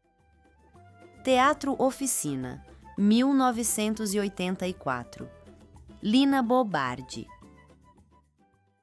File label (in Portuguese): narracao; teatro oficina